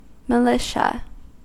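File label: "militia", US English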